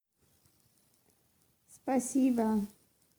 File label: Thank You Russian